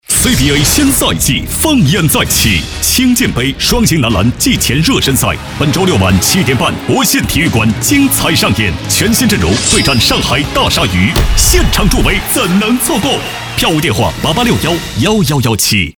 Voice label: 品质广告 篮球 男 激情大气; CBA篮球新赛季

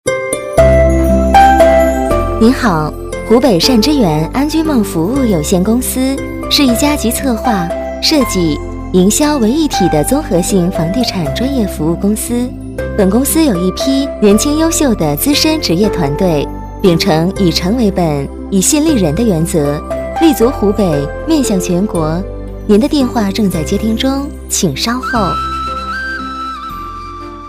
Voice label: 彩铃 女; 湖北善之源

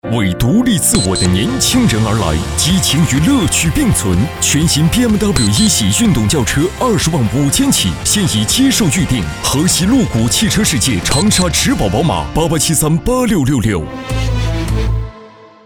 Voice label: 广告 激情力度; 宝马

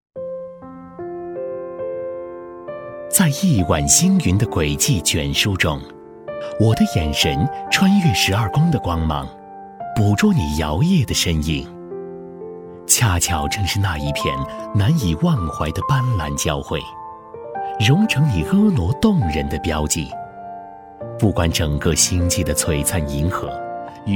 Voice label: 旁白 婚礼旁白男 舒缓深情

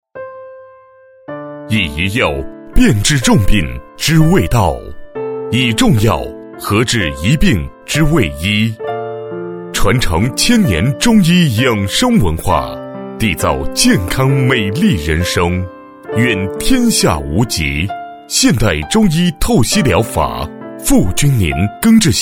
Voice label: 彩铃 中医彩铃男 大气温暖; 李明翰中医彩铃

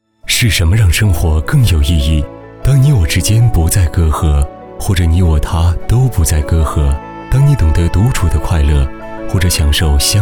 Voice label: 广告 经典讲述, 男; 腾讯

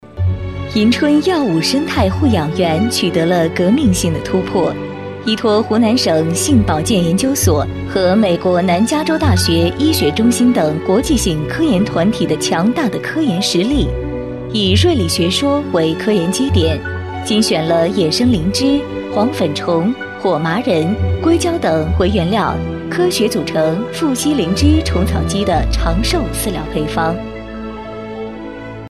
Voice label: 【专题】药业 女 庄重

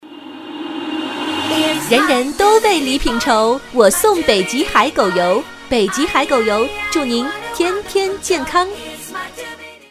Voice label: 促销 女 活泼; 海狗油

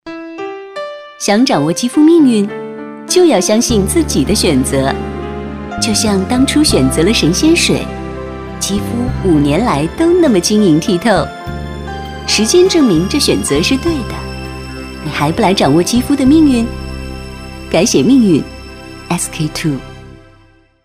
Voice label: 广告 成熟自然, 女

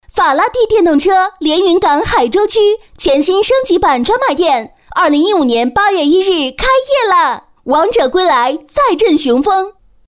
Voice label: 促销 电动车 女 激情; 法拉蒂电动车

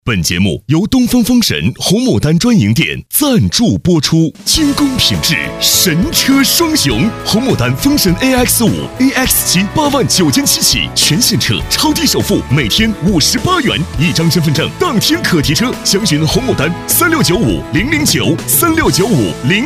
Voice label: 广告 汽车 男 浑厚激情; 东风风神汽车

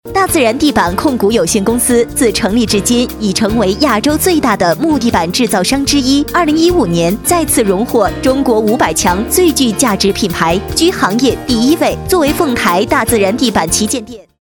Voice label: 促销 大自然地板 女 活力轻快

